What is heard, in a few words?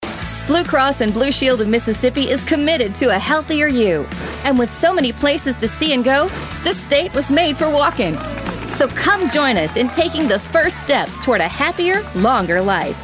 cheerful young encouraging bright, voice; bdbs or mississippi